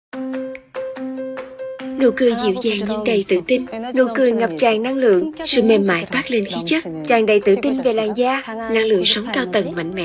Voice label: TVC; TVC (Mỹ phẩm Oligio)